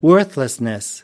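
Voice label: "worthlessness", British English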